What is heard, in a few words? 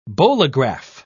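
"bolograph", British English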